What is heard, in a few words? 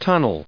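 "tunnel", English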